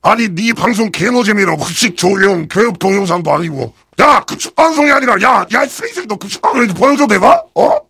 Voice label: heavy jeers